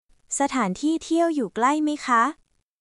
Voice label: Thai, basic thai phrases

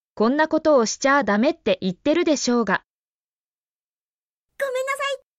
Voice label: Emotion Level: Fully angry, almost yelling; Japanese; apology phrases; 「こんなことをしちゃダメって言ってるでしょうが！！（konnakotowoshichadametteitterudeshoga！！）」「ごめんなさい！（gomennasai！）」

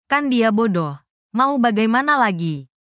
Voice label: indonesia, negative phrases

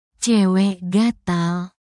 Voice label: indonesia, negative phrases

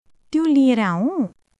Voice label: Nepali; 職場; 気遣いフレーズ; learn nepali using katakana; ネパール語で深める職場の絆

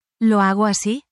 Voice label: spanish, spanish phrases

